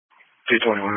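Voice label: Police Patrol (Dispatch)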